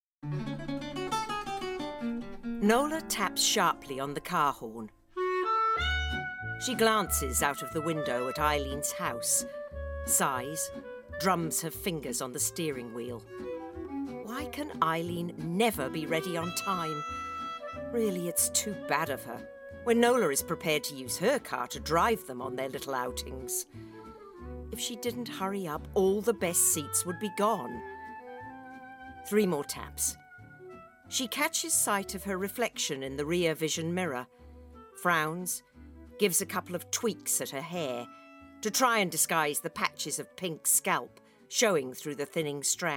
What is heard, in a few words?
Audio Book; Sound Bites